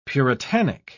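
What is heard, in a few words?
"puritanic", British English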